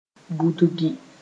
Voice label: "budgi", moore

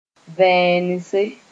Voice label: "vẽenese", moore

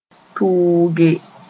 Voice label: "tʋʋge", moore; to advance

